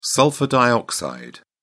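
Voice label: "sulphur dioxide", British English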